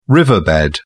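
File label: "riverbed", British English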